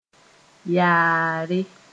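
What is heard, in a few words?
"yaare", Mooré; without discomfort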